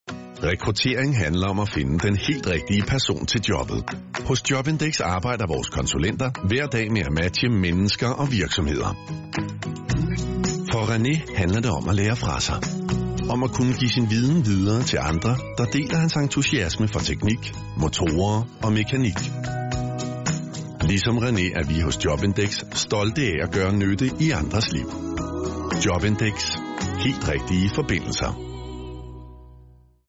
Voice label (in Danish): reklamespot; underviser video